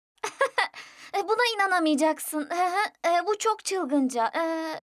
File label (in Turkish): Kız Çocuk